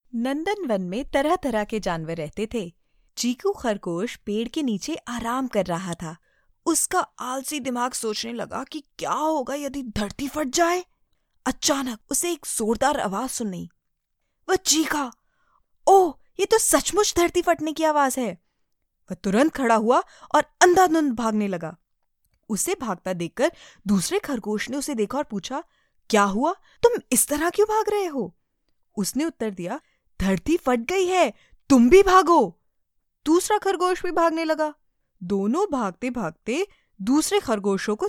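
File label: Hindi, female, audiobook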